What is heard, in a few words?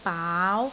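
"baau2", Cantonese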